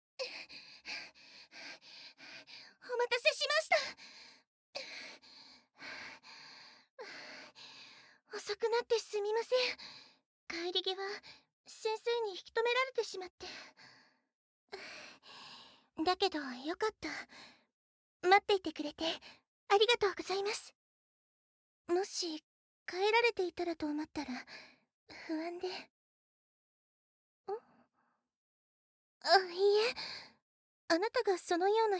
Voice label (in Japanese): 恋人と約束 彼氏視点 ボイスオンリー, ASMR; バイノーラル